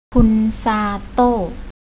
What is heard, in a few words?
"kun sato", Thai; travel